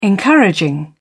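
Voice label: "encouraging", British English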